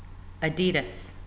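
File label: American, female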